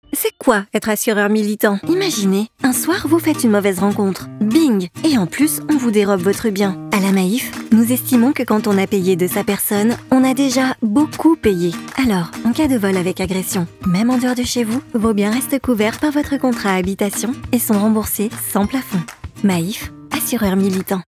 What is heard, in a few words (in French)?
spot, voix off publicitaire commercial; MAIF